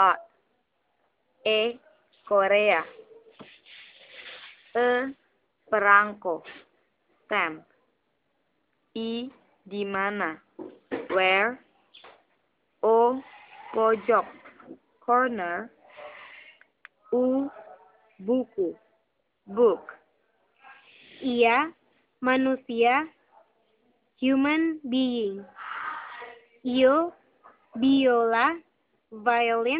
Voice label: Vowels, Indonesian